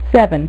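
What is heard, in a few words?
"seven", female; MacCribbage; InterStellar MacCribbage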